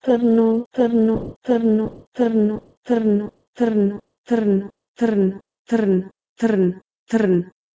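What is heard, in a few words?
Proto-Indo-European "trno" to Bosnian "trn"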